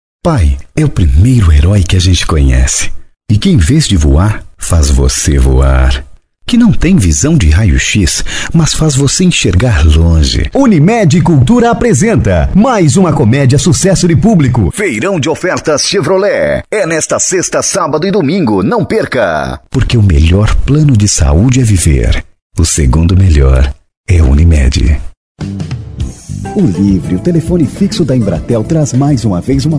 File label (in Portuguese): locutor